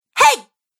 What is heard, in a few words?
"Hey", Womans Voice; Recorded Voice Sound Effects